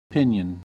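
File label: "pinion", American English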